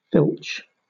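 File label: "filch", British English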